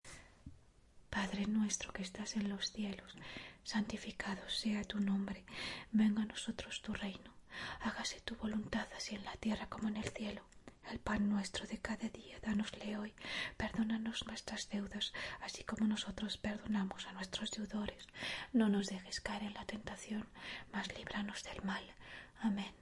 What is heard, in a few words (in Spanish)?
padrenuestro our father prayer in spanish